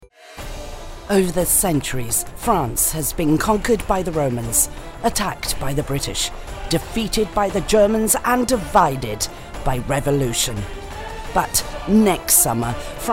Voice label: television british female